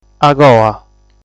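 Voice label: "Agoa", Sardinian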